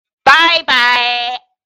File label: female byee